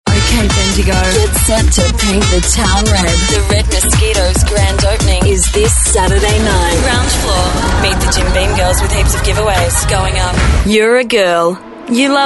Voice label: female talent retail